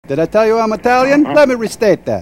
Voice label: italian